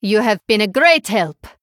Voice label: Female Draenei Emote Thanks